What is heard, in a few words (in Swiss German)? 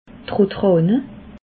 "rotrahne", Alsace